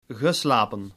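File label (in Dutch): "geslapen", Dutch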